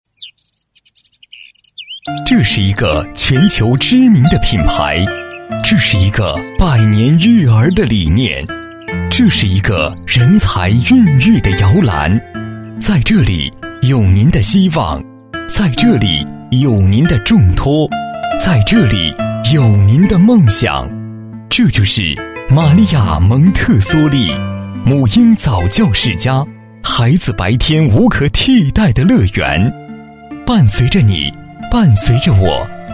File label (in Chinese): 男 浑厚大气 企业宣传片, 国语男声配音 磁性; 蒙特梭利